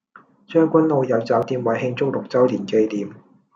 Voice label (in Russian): Голоса, Гонконгский